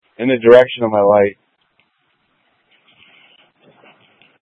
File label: Mutual Aid-Intersystem Interop B, scanner